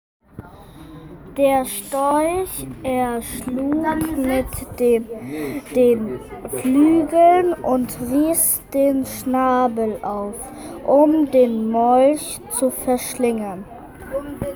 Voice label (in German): Sprachmemo